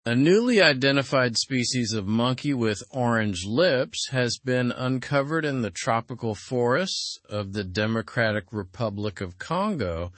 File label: english through news upper intermediate; discovery of new monkey species with orange lips; slow